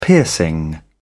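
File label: "piercing", British English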